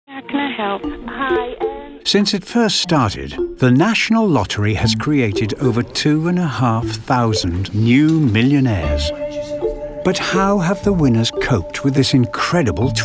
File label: narrative